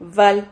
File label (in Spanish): "val", Silabas